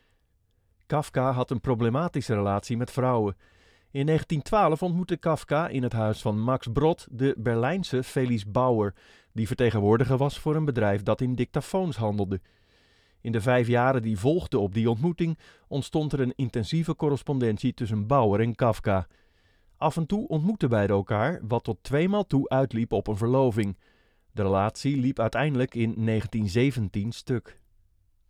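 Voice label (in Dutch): Audio biografie, Voice-over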